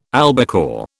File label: "albacore", British